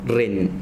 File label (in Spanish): Zapoteco sangre; miahuatec